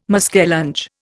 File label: "muskellunge", Indian